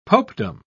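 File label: "popedom", British English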